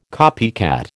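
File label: "copycat", American English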